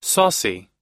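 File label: "saucy", US English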